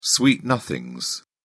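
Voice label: "sweet nothings", British English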